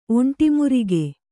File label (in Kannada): "ಒಂಟಿಮುರಿಗೆ", Kannada